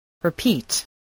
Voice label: "repeat", English

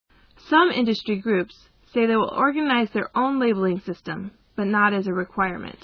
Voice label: M

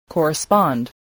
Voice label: "correspond", voice spelling

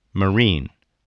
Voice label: "marine", spelling voice

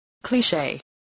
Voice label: "cliche", voice

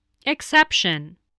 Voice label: "exception", voice, english

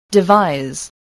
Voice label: "devise", english spelling

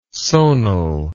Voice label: "sonal", english

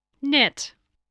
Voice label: "knit", English

